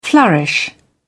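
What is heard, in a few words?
"flourish", British English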